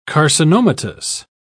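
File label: "carcinomatous", British English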